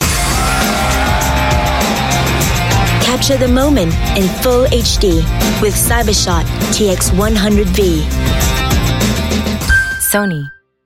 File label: Asian English; Sony Cybershot